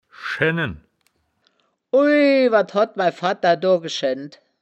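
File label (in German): "schennen", wittlicher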